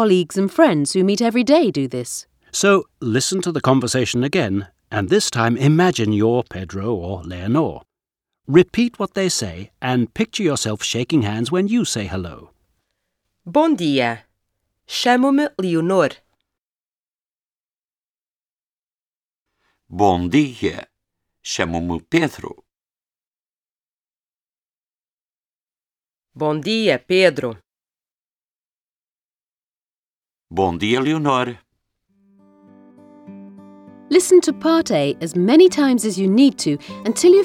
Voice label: Portuguese